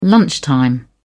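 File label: "lunchtime", British English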